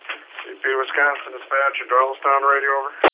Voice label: Radio; Doylestown